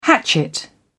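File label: "hatchet", British English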